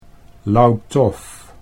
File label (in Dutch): "loutof", Dutch